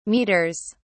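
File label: "metres", English, British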